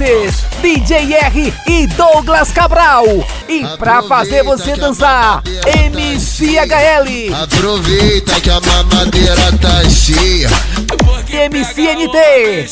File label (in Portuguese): chamadas vinhetas para festas voz locutor; ga party fox a festa